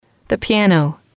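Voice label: "piano", English, female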